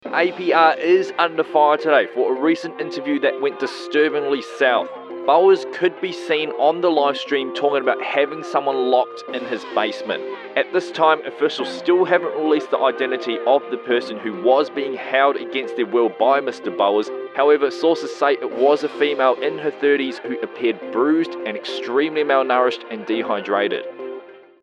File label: news report